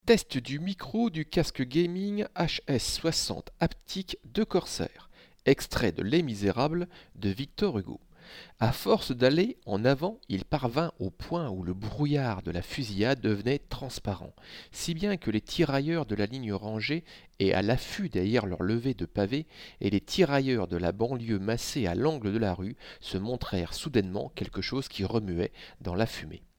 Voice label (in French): Test Micro